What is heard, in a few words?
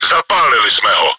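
enemy fire started by player, чешской озвучки; Zapálili jsme ho.; Czech; Мы его подожгли.; World of Tanks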